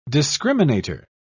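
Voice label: "discriminator", British English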